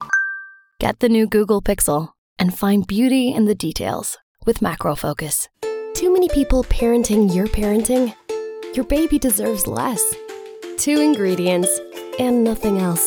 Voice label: us commercial, english female voices american accent